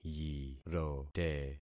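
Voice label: "yirote", concatenated syllables; Affixing experiment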